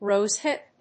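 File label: "rose hip", English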